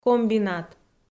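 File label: "комбинат", Komi, female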